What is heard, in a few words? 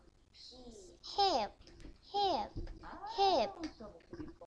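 "hip", spoken word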